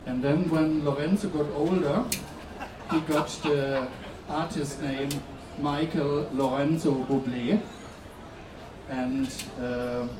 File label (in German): Ansage